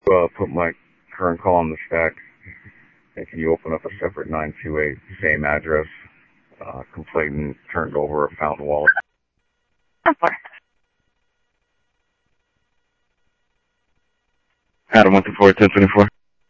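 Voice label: Sheriff East County, scanner audio trunk; MCSO - EAST; South Scottsdale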